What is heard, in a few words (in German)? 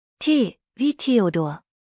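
"Theodor", German spelling alphabet; T wie Theodor; buchstabierung alt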